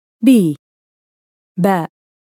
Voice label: "baa", arabic